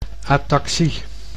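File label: "ataxie", Dutch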